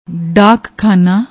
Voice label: "daakkhaana", Punjabi; ਡਾਕਖਾਨਾ